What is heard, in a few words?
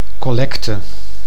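"collecte", Dutch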